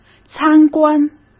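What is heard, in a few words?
"参观", Mandarin Chinese; hsk; chinese-flashcards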